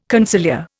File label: "conciliar", Indian